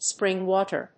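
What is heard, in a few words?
"spring water", English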